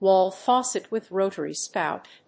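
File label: "wall faucet with rotary spout", English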